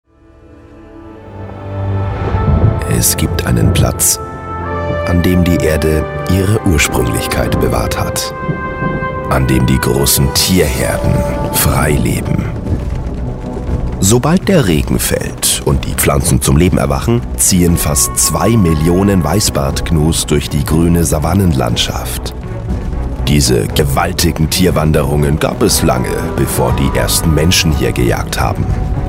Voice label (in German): Afrika Doku